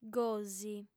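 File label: "gosi", female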